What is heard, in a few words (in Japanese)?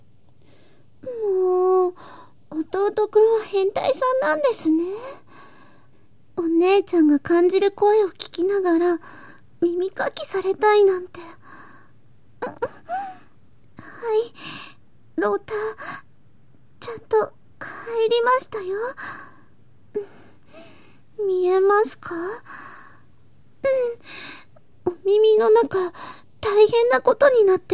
エッチな耳かき（バイノーラル編集 効果音あり）; みみあね。 ～世界で1番弟クンを甘やかすお姉ちゃん～【囁き・添い寝・立体音響】